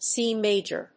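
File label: "C Major", English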